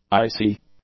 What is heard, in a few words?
"icy", English; adjectives